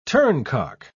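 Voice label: "turncock", British English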